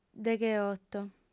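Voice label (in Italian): "degheoto", lingua sarda, female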